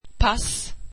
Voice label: swedish passport